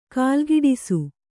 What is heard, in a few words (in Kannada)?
"ಕಾಲ್ಗಿಡಿಸು", Kannada